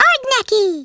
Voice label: "watch this", Hungarian; Worms Armageddon